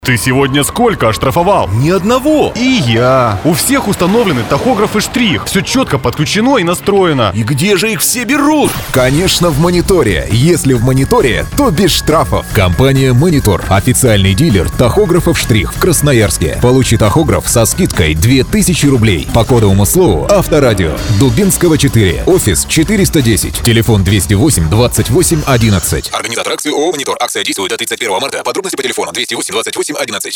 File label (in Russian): Партнерский рекламный ролик